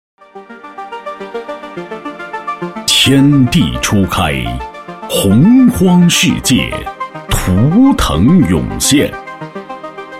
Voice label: 男25 专题 大气厚重, 国语男声配音

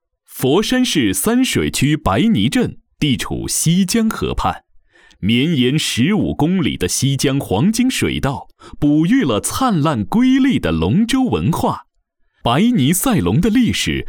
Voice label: 成熟大气, 浑厚, 解说讲解; 白坭龙舟; 水上马拉松

